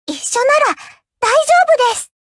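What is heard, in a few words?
battle, Japanese; Cookie Run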